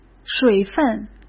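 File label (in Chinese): "水分", Mandarin Chinese; chinese flashcards; hsk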